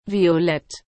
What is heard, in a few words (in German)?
"Violett", German